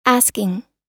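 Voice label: "asking", American English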